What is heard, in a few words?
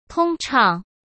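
"通畅", Mandarin Chinese; chinese flashcards; hsk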